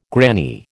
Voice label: "granny", American